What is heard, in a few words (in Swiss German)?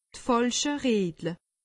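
"falschereetle", Alsatian; medecine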